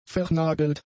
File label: "vernagelt", German; adjectives